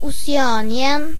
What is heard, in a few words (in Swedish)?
"oceania", sv; gcompris-data; geography